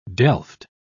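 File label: "delft", British English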